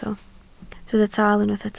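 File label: "taal" Welsh, spoken word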